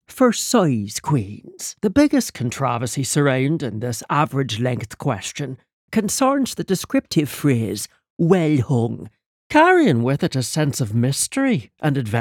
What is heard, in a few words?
irish, accents and dialects